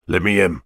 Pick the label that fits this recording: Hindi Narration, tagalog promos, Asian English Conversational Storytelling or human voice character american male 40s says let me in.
human voice character american male 40s says let me in